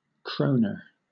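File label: "kroner", English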